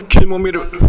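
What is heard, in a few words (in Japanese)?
"kimomeru", 南山形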